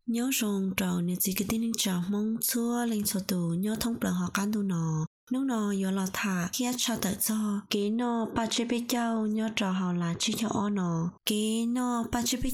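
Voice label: Hmong, Narration; voice demos; GoLocalise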